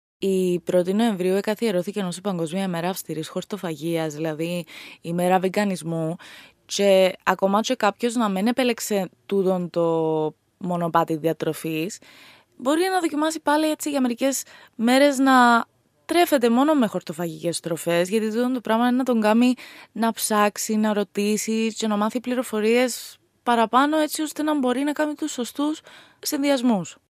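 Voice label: Cypriot Greek, podcast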